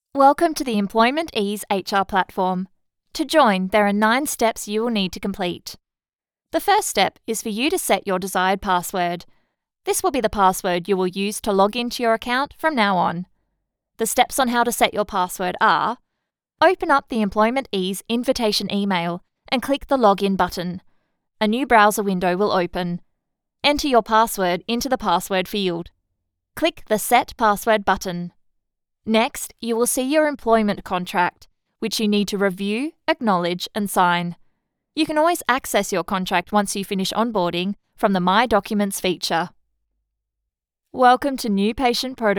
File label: eLearning